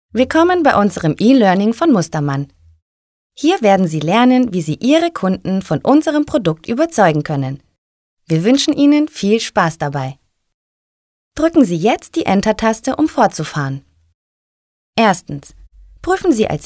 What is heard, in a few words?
elearning